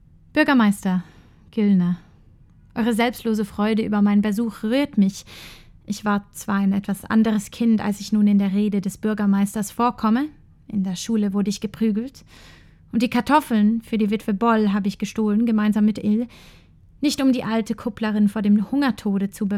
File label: Deutsch; Besuch Der Alten Dame